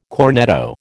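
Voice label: "cornetto", American English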